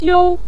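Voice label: "jiu", Mandarin Chinese, neutral tone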